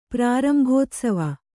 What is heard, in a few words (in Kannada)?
"ಪ್ರಾರಂಭೋತ್ಸವ", Kannada